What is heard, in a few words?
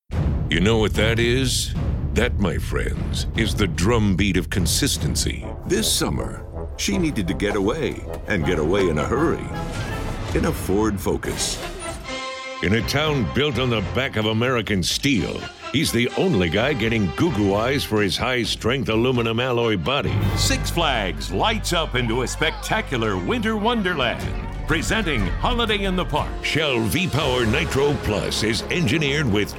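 commercial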